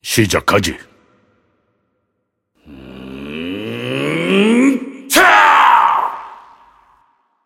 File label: cinematic voice; lineage2